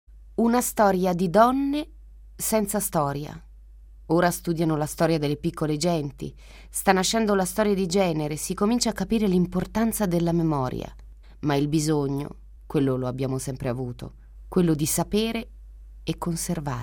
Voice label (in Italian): lettura suffragette